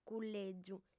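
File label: "cullègiu", female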